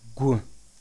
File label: "Гу", Circassian